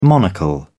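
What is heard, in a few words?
"monocle", English, British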